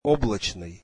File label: russian cloudy